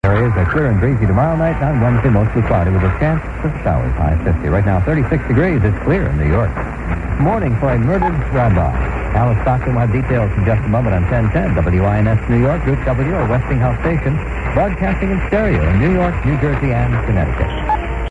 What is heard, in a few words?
old dx rec from uk of wins 1010 with mention of stereo